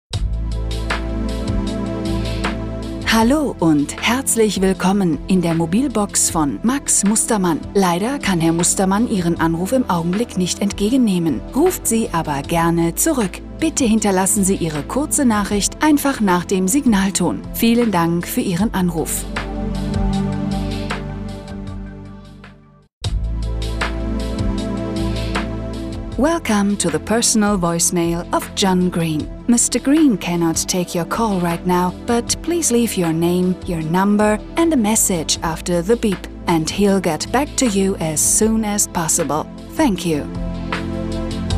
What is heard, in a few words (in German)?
telefon, deutsch frauen; sprecher